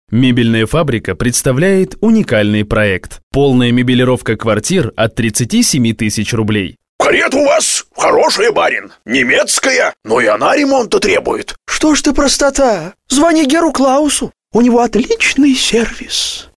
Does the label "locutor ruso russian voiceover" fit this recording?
Yes